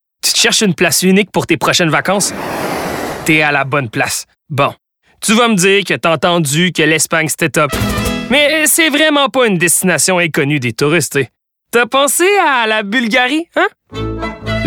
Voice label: annonceur fr qc joual qc naturel amical avec sourire promo medium; mon blog; adulte

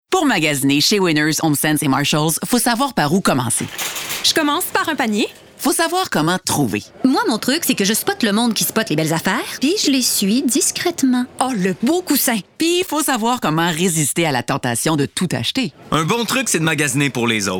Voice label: pub audio fr mix radio; winners tjx

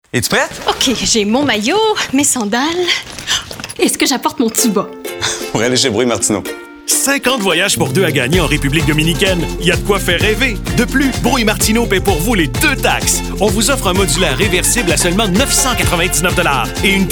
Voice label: fr qc naturel personnage humour amical avec sourire energique; adulte

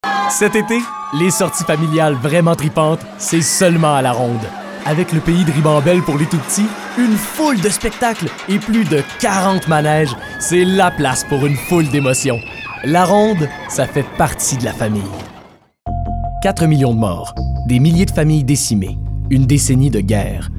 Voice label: voix annonceur; gchampagne agence artistique 2017

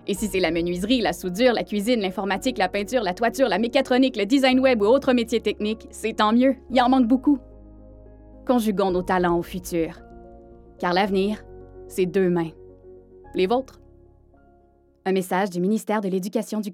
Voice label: annonceur fr qc naturel amical avec sourire douce rassurante; gouv du qc

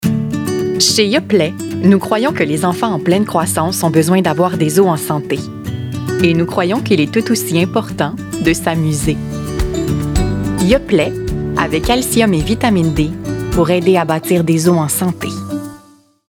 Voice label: annonceur fr qc naturel amical avec sourire medium adulte; yoplait

